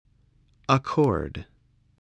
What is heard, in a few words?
"accord", English